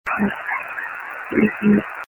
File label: Womns voice bedroom; Sandown N.H; EVP